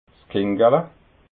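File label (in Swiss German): "kinggala", Alsatian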